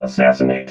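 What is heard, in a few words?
"assassinate", robot voice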